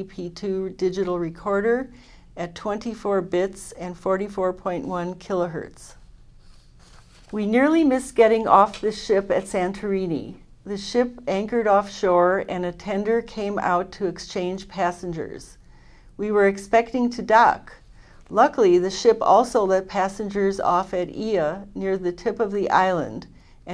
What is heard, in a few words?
AT Pro7a Left AT 803b Right female, microphones